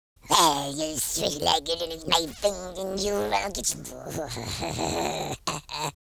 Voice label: angry, speech

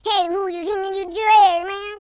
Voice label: angry, Gangsta; speech

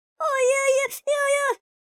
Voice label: angry, speech

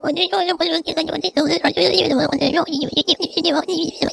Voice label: Old Woman; Worms3D

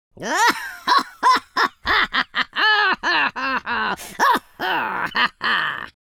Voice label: happy, Viking

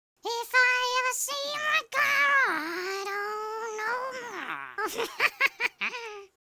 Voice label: angry, speech Classic

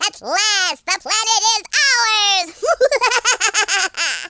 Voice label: victory, speech, Alien; Worms3D

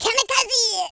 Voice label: "kamikaze", French; speech

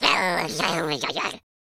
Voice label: angry, Spanish; speech

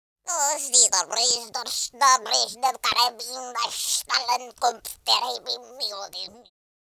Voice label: angry, Russki; speech

